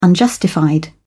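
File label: "unjustified", English, British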